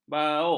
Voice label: "bauk", ms